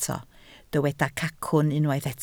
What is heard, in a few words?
"cacwn", Welsh